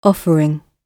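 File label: "offering", British English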